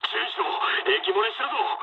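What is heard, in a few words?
damaged water mood low, speech japanese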